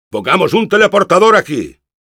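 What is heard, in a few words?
Engineer need teleporter, Spanish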